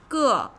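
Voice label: "ge", a measure word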